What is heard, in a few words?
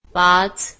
"5", Marathi